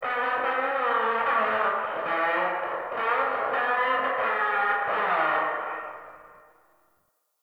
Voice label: Distant PA Voice; Warframe; Ambience; Entrati; Dante Unbound Leverian

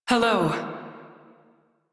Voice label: Crew Greet, Female; Dialog; en; Warframe; Railjack Crew; New Loka

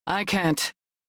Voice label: Crew Reject, Female; Dialog; en; Warframe; Railjack Crew; New Loka

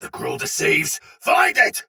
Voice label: Sight Lost Shout Soldier Sword Agent Male, Battle; Sinecure

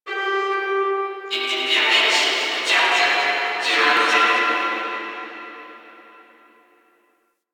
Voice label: Guild Announcer; Warframe

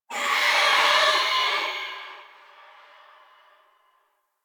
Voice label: Whisper Pain; Enemies; Stalker Acolyte

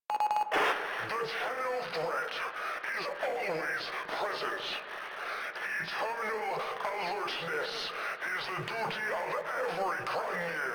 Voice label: Announcements, Radio Interceptions; Grineer Announcer

